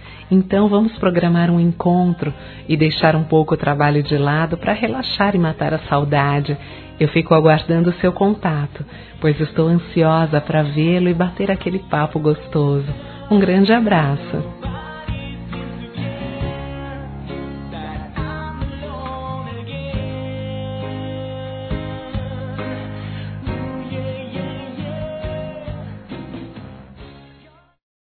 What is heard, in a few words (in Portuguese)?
Saudades Amigo Feminino; Telemensagens